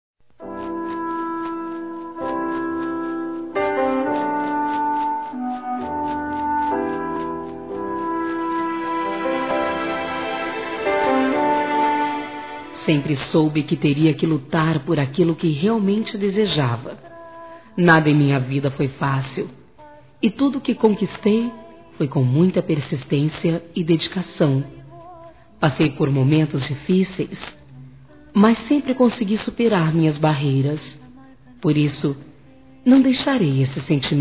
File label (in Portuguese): Telemensagens Amor Fem; Telemensagens 2017